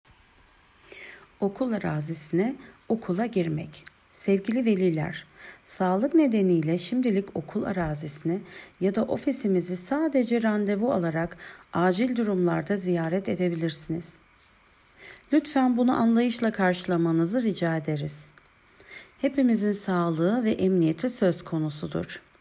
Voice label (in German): Türkisch